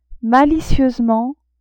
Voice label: "malicieusement", French; adverbs

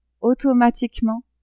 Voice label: "automatiquement", French; adverbs

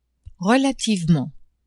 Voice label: "relativement", French; adverbs

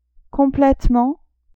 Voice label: "completement", French; adverbs

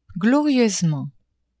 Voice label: "glorieusement", French; adverbs

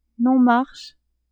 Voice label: "non-marché", French; adverbs

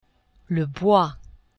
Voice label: "bois", French; geographie

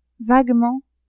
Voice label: "vaguement", French; adverbs

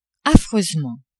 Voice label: "affreusement", French; adverbs

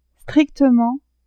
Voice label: "strictement", French; adverbs

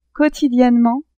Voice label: "quantitativement", French; adverbs

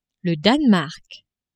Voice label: "Danemark", French

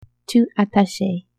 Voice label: "tu attachais", French

